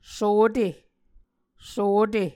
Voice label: "sote", Muscogee